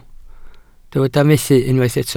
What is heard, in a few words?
"methu", Welsh